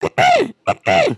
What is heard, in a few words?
Pick me, emotes